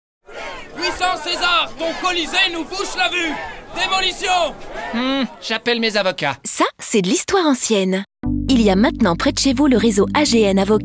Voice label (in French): spots radio